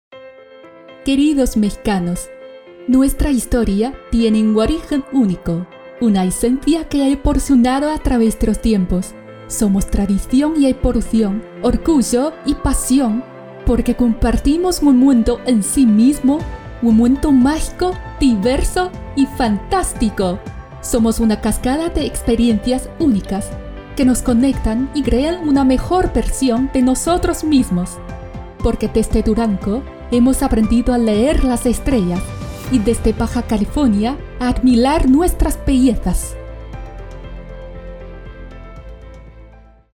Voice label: 西语 墨西哥 宣传片

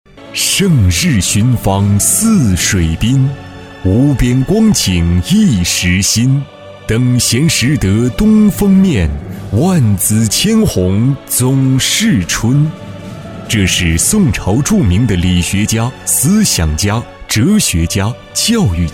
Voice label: 悠远大气

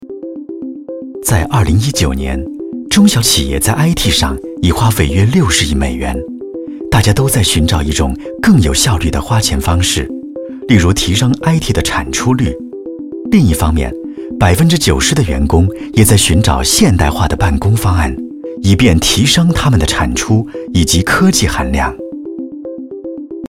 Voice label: 大气科技感叙述; 微软

